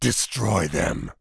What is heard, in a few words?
Orc Male Charge; wow